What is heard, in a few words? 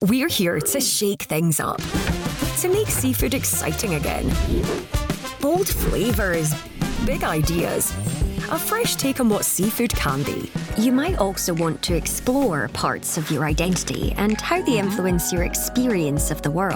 Corporate